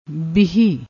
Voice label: "bihi", Punjabi

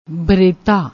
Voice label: "baretta", Punjabi

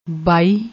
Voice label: "baai", Punjabi

